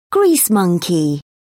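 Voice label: "grease monkey", British English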